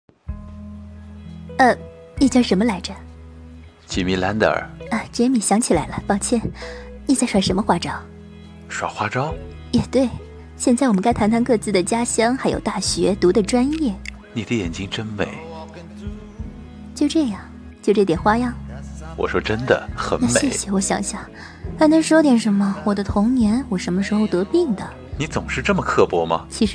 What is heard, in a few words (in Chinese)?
青年女声, 普通话, 译制片; 爱情与灵药